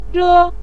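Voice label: "zhe" neutral tone, Mandarin Chinese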